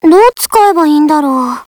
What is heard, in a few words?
smoke, radio; csgo; custom radio